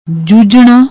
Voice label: "jujhna", Punjabi